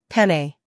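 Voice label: "humor", British; penne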